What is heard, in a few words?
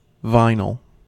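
"vinyl", US English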